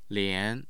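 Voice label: "lian", Mandarin Chinese, tone 2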